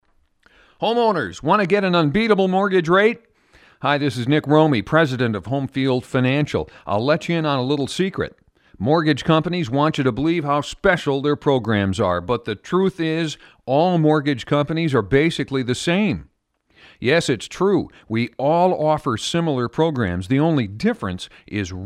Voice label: radio commercial; homefield financial